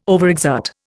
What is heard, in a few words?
"overexert", Indian